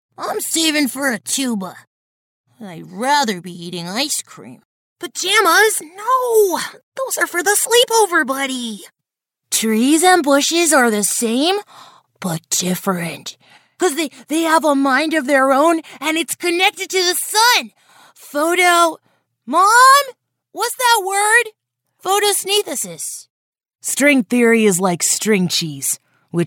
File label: Boy Voices Female English